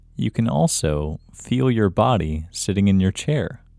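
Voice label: Male, India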